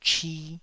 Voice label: "chi2", Taiwanese

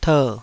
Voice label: "ter3", Taiwanese

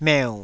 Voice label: "meu3", taiwanese

